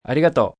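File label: Thank You Japanese M, male, Japanese; Etiquette; VoiceBox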